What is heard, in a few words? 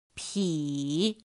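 "pǐ", Mandarin Chinese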